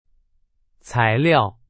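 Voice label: Chinese; hsk4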